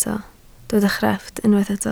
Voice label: "crefft", Welsh

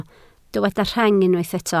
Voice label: "rheng", Welsh

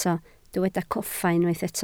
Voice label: "coffa", Welsh

